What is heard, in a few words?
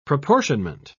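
"proportionment", British English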